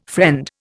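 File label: "friend", Indian English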